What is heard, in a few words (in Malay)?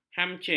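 "hancing", Malay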